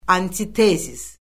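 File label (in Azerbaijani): "antitezis", Azerbaijani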